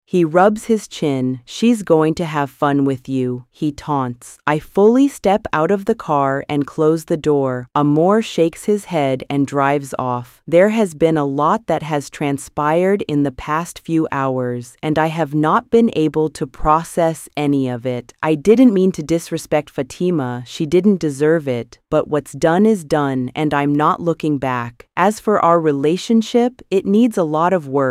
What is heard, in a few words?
audiobook; fair game